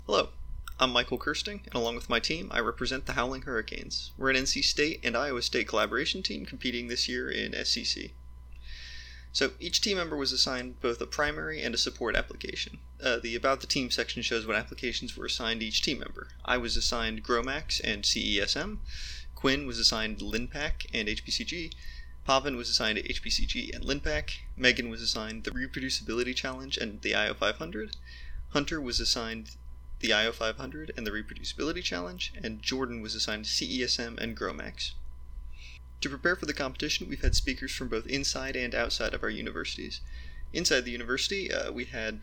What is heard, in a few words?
Voiceover; ClusterComp 2020